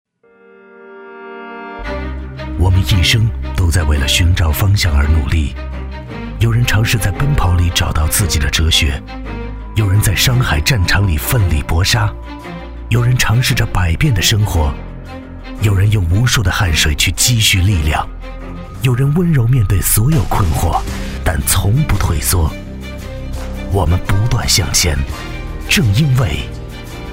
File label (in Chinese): 男 广告